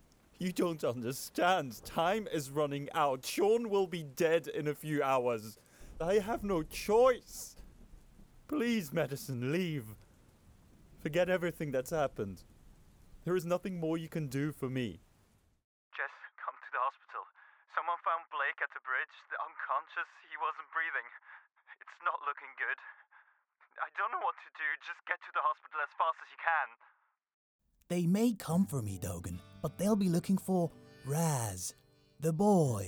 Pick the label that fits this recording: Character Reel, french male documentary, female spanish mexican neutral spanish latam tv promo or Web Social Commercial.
Character Reel